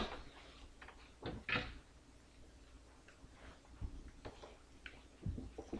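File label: "neck", spoken word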